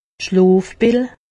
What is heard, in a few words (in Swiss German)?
"schloopill", alsace; medecine